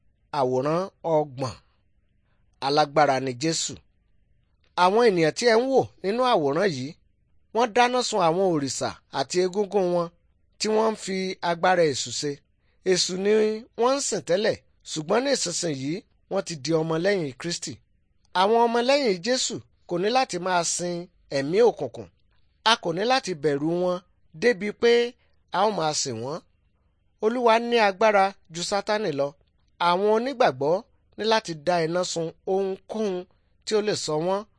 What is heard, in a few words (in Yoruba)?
good news yoruba